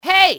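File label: "hey", enemy, english, female; XBOX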